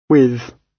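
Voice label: "with", British English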